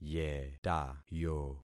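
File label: "yetayo", concatenated syllables; Affixing experiment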